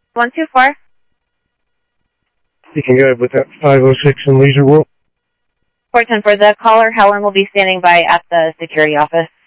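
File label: Sheriff West County, scanner audio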